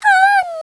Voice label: run away, voice commands; svencoop; Miku